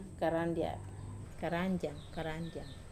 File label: "karandia", Wamesa